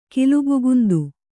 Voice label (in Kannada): "ಕಿಲುಬುಗುಂದು", Kannada